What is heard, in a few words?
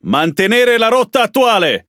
It Speech Pilots Fighter Airborne; World Of Warships